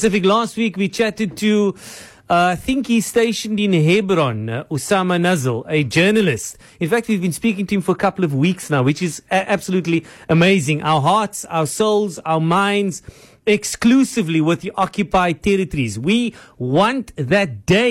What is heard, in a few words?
in studio guest raw